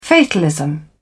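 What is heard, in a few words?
"fatalism", British English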